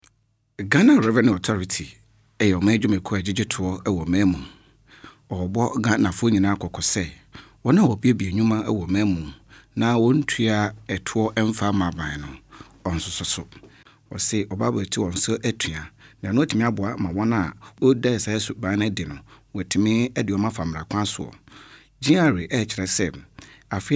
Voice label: twi male

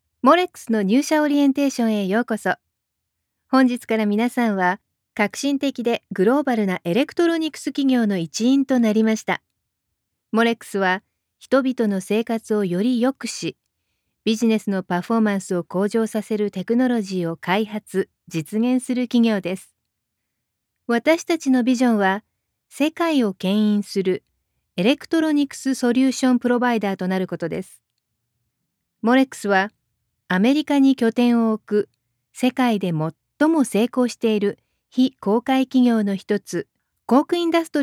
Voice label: japanese female

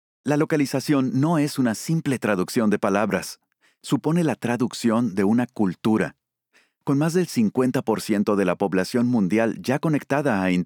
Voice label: spanish mexican male

